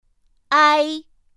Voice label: "ai", pinyin; pinyin2; pinyinji

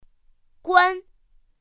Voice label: "guān", pinyin; pinyin2; pinyinji

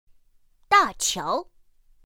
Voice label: "dàqiáo", pinyin; pinyin2; pinyinji

